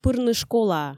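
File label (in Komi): пырны школаӧ, Komi, female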